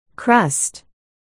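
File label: "crust", spoken word, articulation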